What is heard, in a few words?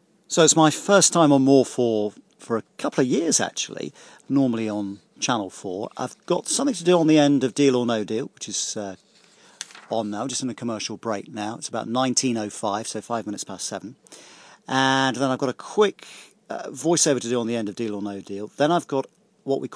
announcing; more4